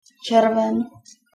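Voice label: "červen", Czech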